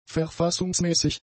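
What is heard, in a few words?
"verfassungsmäßig", German; adjectives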